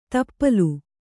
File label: "ತಪ್ಪಲು", Kannada